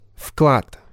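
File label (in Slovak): "vklad", Slovak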